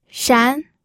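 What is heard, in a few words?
"shan2", Mandarin Chinese pinyin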